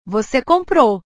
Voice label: "voce comprou", portugues; comprar; portugues/verbos

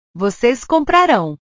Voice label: "voces comprarao", portugues; portugues/verbos

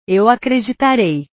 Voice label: "eu acreditarei", portugues

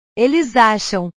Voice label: "eles acham", portugues; verbos

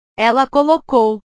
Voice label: "ela colocou", portugues; portugues/verbos

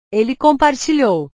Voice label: "ele compartilhou", portugues; compartilhar; portugues/verbos

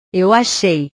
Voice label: "eu achei", portugues; verbos

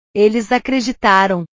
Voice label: "eles acreditaram", portugues

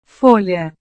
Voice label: "folha", portugues

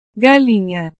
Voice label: "galinha", portugues

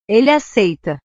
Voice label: "ele aceita", portugues; verbos

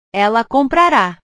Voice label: "ela comprara", portugues; portugues/verbos

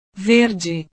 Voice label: "verde", portugues

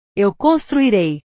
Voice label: "eu construirei", portugues; portugues/verbos

